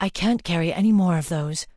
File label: Night Elf Female err item max count